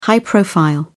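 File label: "high profile", English, British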